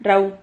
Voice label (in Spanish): "rrau", Silabas